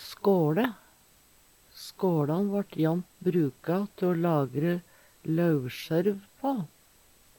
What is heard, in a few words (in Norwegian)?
"skåLe", Numedalsmål; SkåLa vart jamnt nytta te o lagre lauvskjørv på ( granne kvista me lauv på )